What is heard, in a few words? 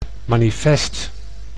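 "manifest", Dutch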